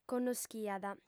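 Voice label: "connoschiat", female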